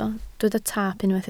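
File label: "taap" Welsh, spoken word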